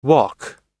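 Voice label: "walk", American English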